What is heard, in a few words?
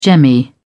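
"jemmy", British English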